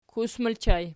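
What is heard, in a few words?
"kus", ergative plural, Archi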